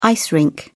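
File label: "ice rink", British English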